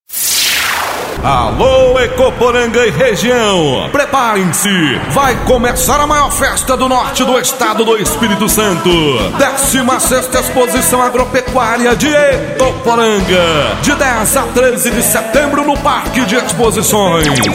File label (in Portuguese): voz locutor de rodeio